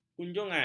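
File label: "kunjungan", Malay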